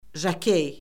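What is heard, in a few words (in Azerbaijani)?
"jokey", Azerbaijani; Orfoqrafiya Lüğəti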